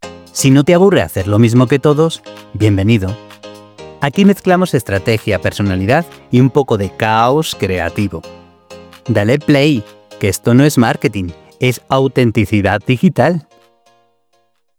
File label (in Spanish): intro de reels de influencer marca personal disruptiva; Demo para intro de reels de influencer – marca personal disruptiva